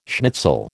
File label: "schnitzel", American